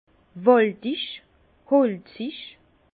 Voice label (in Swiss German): "waldig", Bas Rhin; vin; boisé